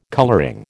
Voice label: "colouring", American

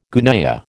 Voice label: "gunyah", American

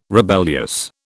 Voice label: "rebellious", British

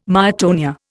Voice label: "myotonia", Indian

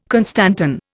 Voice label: "constantan", Indian

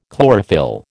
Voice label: "chlorophyll", American

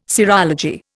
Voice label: "serology", Indian

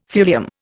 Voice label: "thulium", Indian

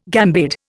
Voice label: "gambade", Indian

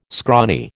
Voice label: "scrawny", American

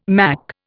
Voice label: "mack", Indian

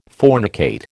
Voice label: "fornicate", American

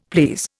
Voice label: "please", Indian; Dictionary

